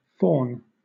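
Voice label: "faun", British English; pronunciations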